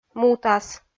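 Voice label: "мутас", Komi, female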